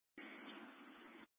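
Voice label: County Fire, Scanner audio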